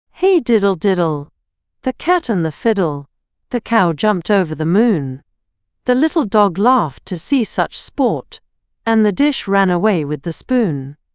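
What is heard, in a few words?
female British; Hey Diddle Diddle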